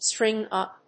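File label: "string up", English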